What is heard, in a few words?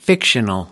"fictional", us